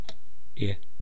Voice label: "i", East Cree syllabics

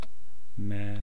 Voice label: "maa", East Cree syllabics

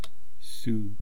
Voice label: "suu", East Cree syllabics

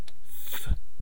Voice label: "v", east cree syllabics

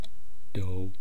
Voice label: "twaa", East Cree syllabics; language

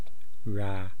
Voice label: "raa", East Cree syllabics

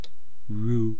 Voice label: "ruu", east cree syllabics

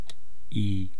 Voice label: "ii", east cree syllabics

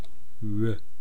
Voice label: "ru", East Cree syllabics

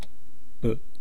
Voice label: "pu", east cree syllabics

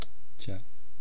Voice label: "cha", East Cree syllabics

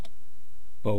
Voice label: "pwaa", East Cree syllabics

